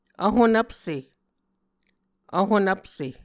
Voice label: "vhonvpse", Muscogee

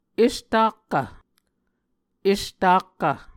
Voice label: "estakkv", Muscogee; Mvskoke Words with Repeated Consonants; Onvkv Ohhvyayices; A Dictionary of Creek/Muskogee; SIL International

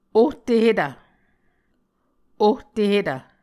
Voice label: "ohtehetv", Muscogee

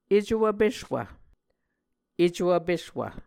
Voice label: "eco vpeswv", muscogee

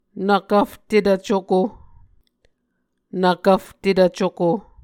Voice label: "nvkvftetv cuko", Muscogee

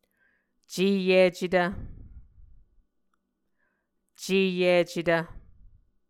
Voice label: "ceyicetv", muscogee; Mvskoke Words with Voicing Consonants; ’cēyicetv c / j; A Dictionary of Creek/Muskogee; SIL International

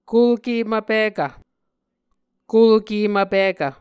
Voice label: "kulke mvpikv", Muscogee; Mvskoke Words with Voicing Consonants; A Dictionary of Creek/Muskogee; SIL International; Onvkv Ohhvyayices

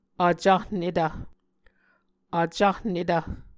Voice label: "vcahnetv", muscogee

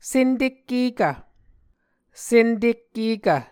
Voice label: "sentekkekv", Muscogee; Mvskoke Words with Repeated Consonants; A Dictionary of Creek/Muskogee; SIL International

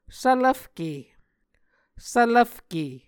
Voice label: "svlvfke", Muscogee

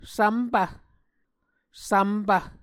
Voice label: "svmpv", Muscogee

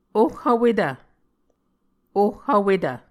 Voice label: "oh vwetv", Muscogee

